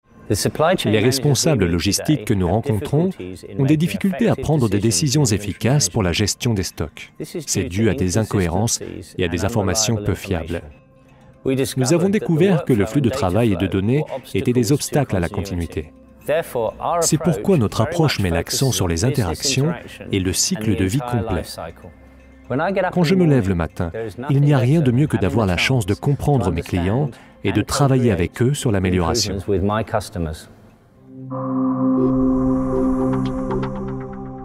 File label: voice over; endress-hauser logistique; French voice-over